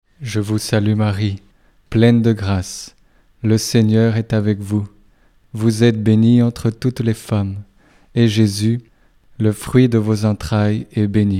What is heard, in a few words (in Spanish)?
ave maria frances normal; ave maria idiomas